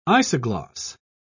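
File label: "isogloss", British English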